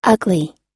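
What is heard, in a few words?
"ugly", American English; ugly /ʌ́gli; 醜い、見苦しい、醜悪な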